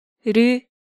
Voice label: "Reu", Korean